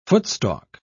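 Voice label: "footstalk", en, uk British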